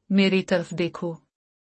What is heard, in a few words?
Look at me. Urdu; Simple Commands